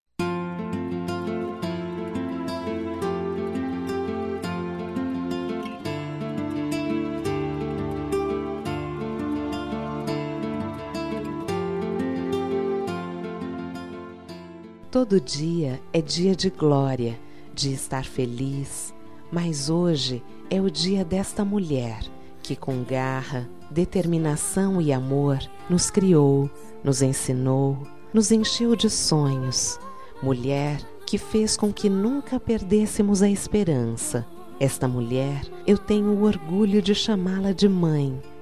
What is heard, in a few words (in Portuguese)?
Dia das Maes pra Mae Que Ta Distante, F